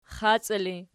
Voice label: xaci erg, archi

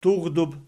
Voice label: "turdub", Archi

